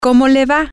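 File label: how is it going, Spanish, female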